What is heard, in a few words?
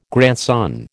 "grandson", American